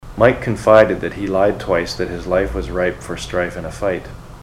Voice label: mike lied twice, ontario